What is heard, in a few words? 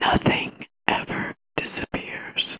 unprocessed